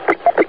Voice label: metro PD radio; HL2